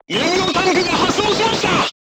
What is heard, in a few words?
voice message fuel tank damaged, crew tanks japanese